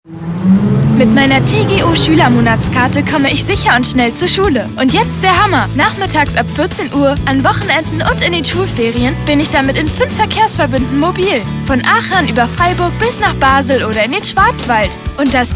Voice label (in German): Radiospot Schuelermonatskarte; Ortenaulinie